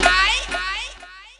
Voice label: "ay", voice